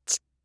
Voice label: "chi", kanjou

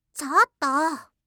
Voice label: "chottoo", reaction, voice

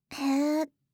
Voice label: "hee", reaction, voice

